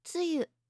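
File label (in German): "tsuyu", japanische Mädchennamen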